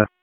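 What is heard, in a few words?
Raleigh Police Northeast District Tactical, p25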